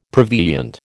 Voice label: "prevenient", American